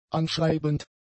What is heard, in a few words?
"anschreibend", German; adjectives